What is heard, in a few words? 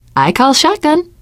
take auto shotgun, teen girl, survivor voice